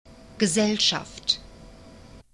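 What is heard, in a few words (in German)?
"gesellschaft", German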